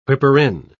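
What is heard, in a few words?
"whipper-in", British English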